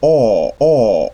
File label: Open mid back rounded vowel